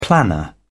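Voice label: "planner", British English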